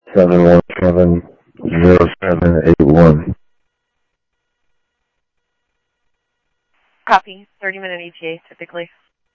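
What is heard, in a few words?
fire radio dispatch, Phoenix Fire; H-Scottsdale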